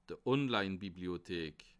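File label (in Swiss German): "online", Bas Rhin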